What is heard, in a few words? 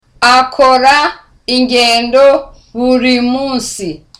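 Kinyarwanda, Softly; Akora ingendo buri munsi.; They make trips everyday.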